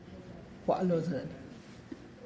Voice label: I went to work, Tlacochahuaya Zapotec; gua' lozëny; (Spanish) Fuí al trabajo

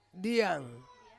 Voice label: "diang", Ansus; fish

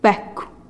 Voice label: "bekuh" dog, teotitlan

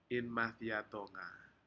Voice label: "inmadiatooga", Aneityum; fish

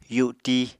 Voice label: "yuti", Apatani

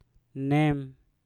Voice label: "nem", Mpur; hitam

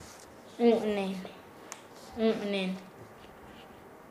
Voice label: "llanto", Nasa Yuwe; paez

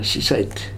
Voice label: "Sisait", futuna aniwa

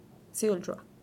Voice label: "zuillrua", teotitlan; Im taller

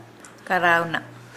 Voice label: "fishnet", Nukuoro

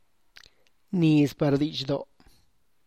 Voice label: "niz par didxdo", Tlacochahuaya Zapotec; corn for offering; (Spanish) maiz para ofrenda

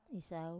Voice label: "sau", Wamesa

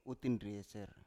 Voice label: "utin drieser", Biak

